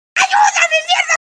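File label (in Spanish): "ayuda", Spanish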